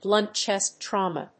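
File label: "blunt chest trauma", English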